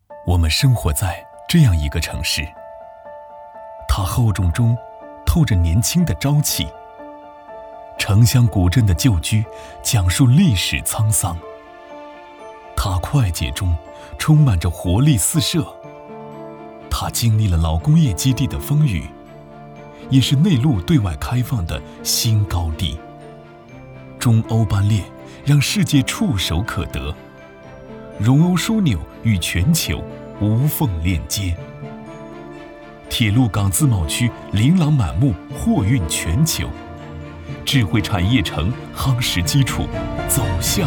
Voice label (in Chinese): 男 宣传片 独白暖心磁性